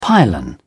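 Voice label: "pylon", British English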